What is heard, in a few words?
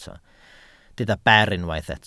"ber", Welsh